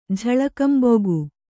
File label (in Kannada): "ಝಳಕಂಬೊಗು", Kannada